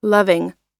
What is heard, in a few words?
"loving", pronunciation